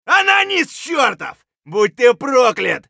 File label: scav battlecry; eft